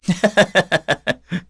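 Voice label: vox Happy, Korean; Ezekiel; kingsraid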